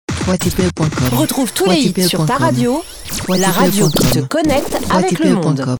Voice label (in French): Retrouve tous les hits sur ta radio, la radio qui te connecte avec le monde. femme